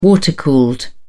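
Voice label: "water-cooled", British English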